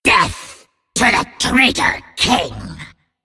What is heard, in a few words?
Male Nerubian Play